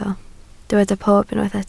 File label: "pob", Welsh